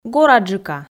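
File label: "гораджыка", Komi, female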